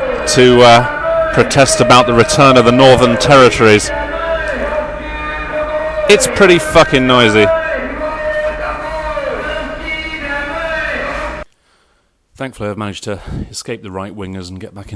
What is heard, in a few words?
scary men in speaker trucks